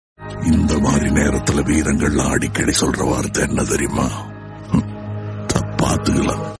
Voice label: Telugu Attitude Dialogue; Vikram; Kamal Hasan